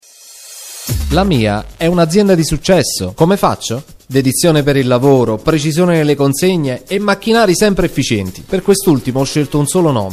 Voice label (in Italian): istituzionale uomo; voci